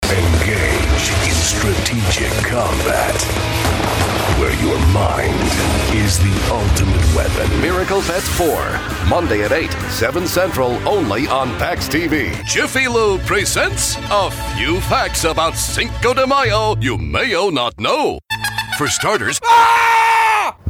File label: Male, promos